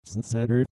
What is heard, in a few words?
reverse speech its inside earth